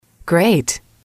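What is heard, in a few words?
"great", English, female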